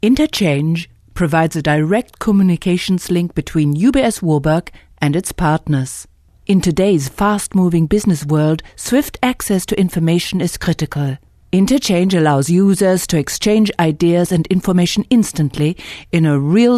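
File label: German English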